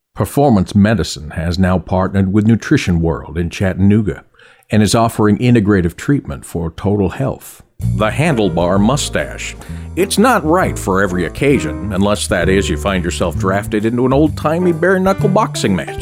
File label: Senior